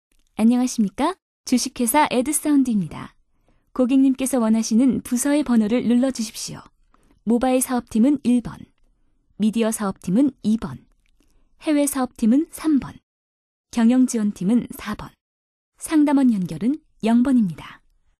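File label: Korean, female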